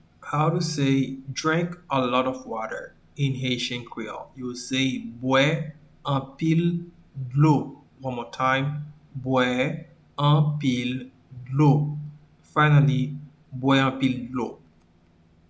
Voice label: Bwe anpil dlo, Haitian Creole; Drink a lot of water